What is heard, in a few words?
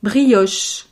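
"brioche", French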